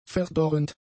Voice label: "verdorrend", German; adjectives